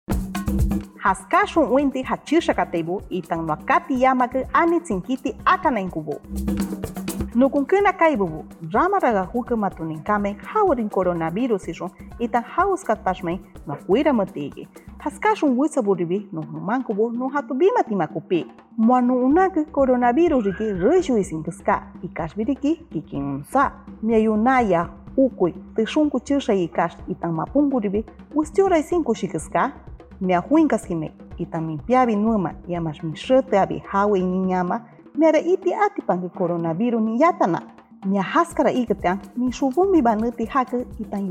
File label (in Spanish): shipibo konibo, spot de radio; atender sintomas y evitar eventos festivos; sospecha o confirmacion de contagio